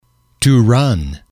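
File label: "to run", English, male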